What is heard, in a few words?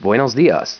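"hello", Mexican town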